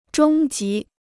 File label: "中级", Chinese